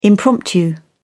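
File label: "impromptu", British English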